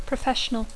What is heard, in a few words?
"professional", English